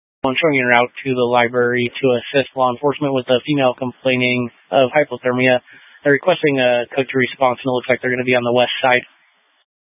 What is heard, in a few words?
Fire Department Dispatch; Grand Junction Fire Department Dispatch